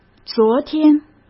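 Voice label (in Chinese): "昨天", Mandarin Chinese; chinese-flashcards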